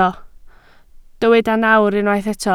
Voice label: "nawr", Welsh

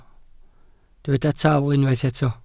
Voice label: "taal", Welsh

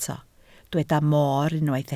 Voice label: "moor", Welsh

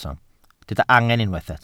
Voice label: "angen", Welsh

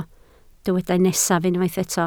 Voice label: "nesaf", Welsh

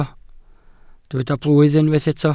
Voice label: "blwydd", welsh

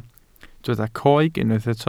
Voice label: "coeg", Welsh

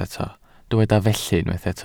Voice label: "felly", Welsh

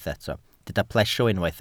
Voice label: "plesio", welsh

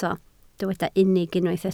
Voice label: "unig", Welsh

